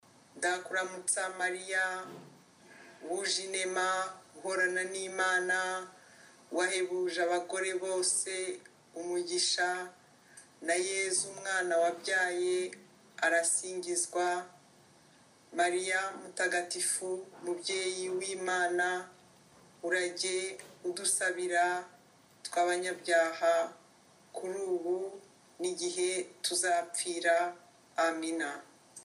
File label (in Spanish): ave maria Kinyarwanda lento